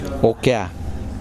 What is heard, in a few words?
"root", Kosraean